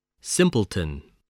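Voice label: "simpleton", spoken word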